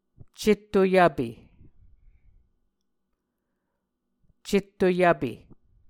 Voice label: "cetto-yvpe", muscogee

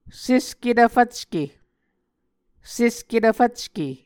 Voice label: "sesketv fvcke", Muscogee

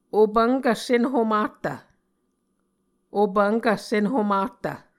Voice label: "opvnkv senhomahtv", Muscogee

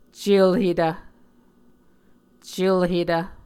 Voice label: "celhetv", muscogee

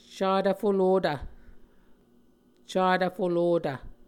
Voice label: "catv-folotv", muscogee

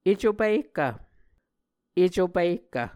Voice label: "eco pihkv", Muscogee

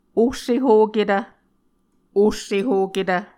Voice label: "ohsehoketv", Muscogee

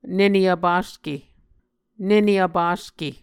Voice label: "nene vpaske", Muscogee

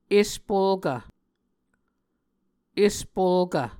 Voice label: "espolkv", Muscogee

